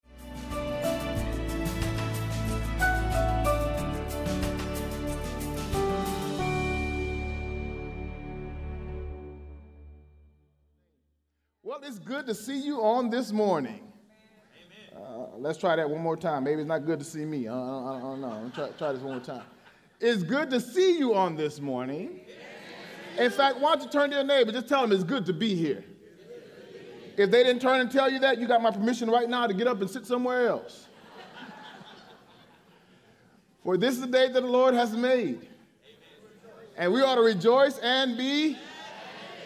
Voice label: English Sermon